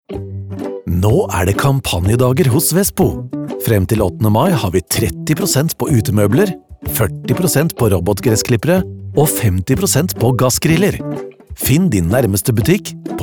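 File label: Norwegian commercial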